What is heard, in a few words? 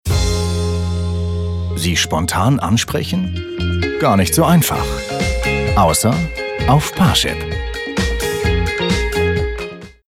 male german commercial; ideasonora